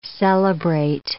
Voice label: "celebrate", English

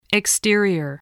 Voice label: "exterior", voice

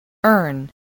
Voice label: "earn", English

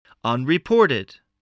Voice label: "unreported", English spelling voice

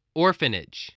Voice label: "orphanage", English voice

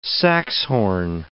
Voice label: "saxhorn", English

